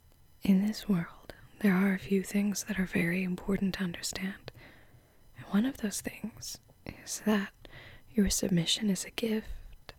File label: ASMR; You Are A Gift